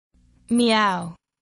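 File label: "meow", English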